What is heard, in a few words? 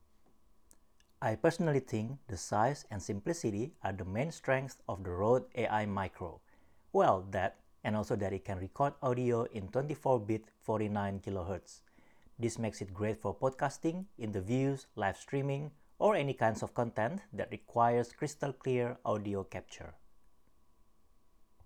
Lavalier audio sample